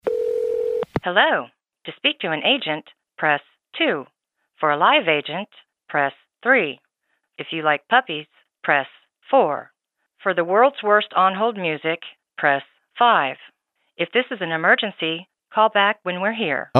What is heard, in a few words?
Voice Mail; Voice Mail Hell II